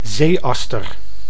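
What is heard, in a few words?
"zeeaster", Dutch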